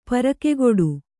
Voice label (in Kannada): "ಪರಕೆಗಟ್ಟು", kannada